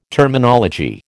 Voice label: "Terminology", American

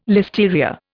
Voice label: "listeria", Indian

